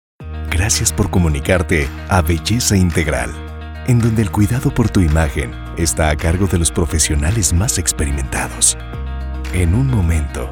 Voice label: HOLD IVR